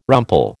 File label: "rumple", American